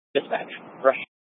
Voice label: Fire Dispatch, scanner

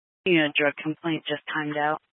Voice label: Police Department Dispatch; Grand Junction

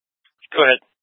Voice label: Mesa County Sheriff Dispatch

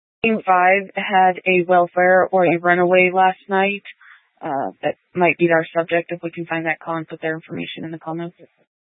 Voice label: Police 1 Dispatch

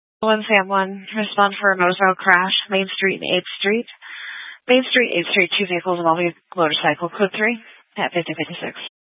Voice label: Fire Dispatch

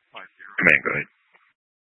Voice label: Incident Tac 2, Scanner audio recording

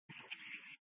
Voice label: Fire Dispatch, Scanner audio

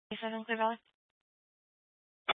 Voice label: Police Dispatch, scanner; Police 1 Dispatch

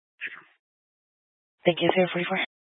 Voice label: Police Dispatch, scanner; Police 1 Dispatch

